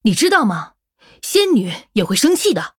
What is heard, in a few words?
Female Human Threaten; zh